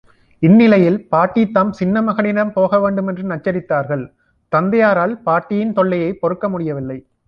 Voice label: tamil audio